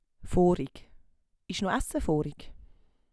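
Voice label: "voorig", Swiss German vocabulary; Wortschatz Kapitel 4; Kapitel 4 Zusatzwortschatz 4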